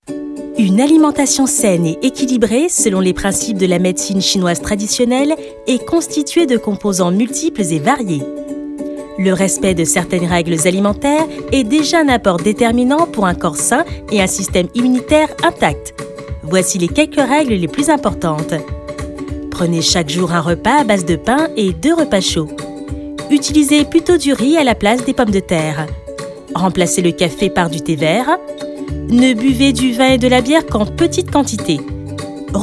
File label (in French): Institutionnel explicatif conseil enjoué; UNE VIE SAINE